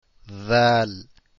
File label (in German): Dhal, Aussprache arabisch; ذ (Dhal)